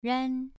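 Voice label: "ran", tone 2, pronunciation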